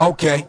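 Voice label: "okay", male voice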